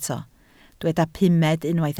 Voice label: "pumed", Welsh

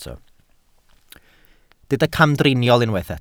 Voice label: "camdriniol", Welsh

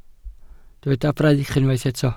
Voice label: "braich", Welsh

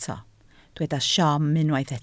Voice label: "siom", Welsh

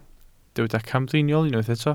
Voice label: "camdriniol", Welsh

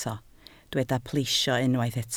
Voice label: "plesio", Welsh

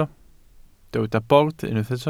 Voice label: "bord", Welsh

